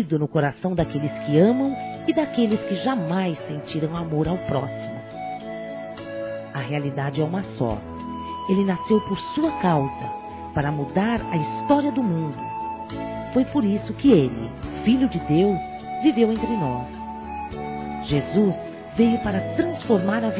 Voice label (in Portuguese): NATAL NEUTRO EVANGELICO-F; Telemensagens; Natal e Ano novo; fem